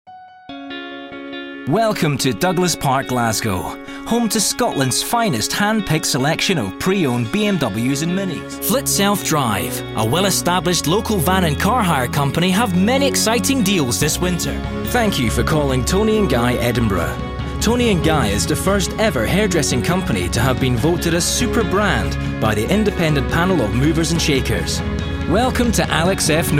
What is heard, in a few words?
on hold